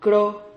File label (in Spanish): "cro", Silabas